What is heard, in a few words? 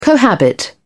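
"cohabit", British English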